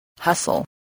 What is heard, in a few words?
"hustle", voice spelling